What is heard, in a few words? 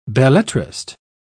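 "belletrist", British English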